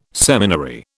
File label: "seminary", British